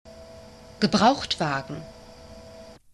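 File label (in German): "gebrauchtwagen", German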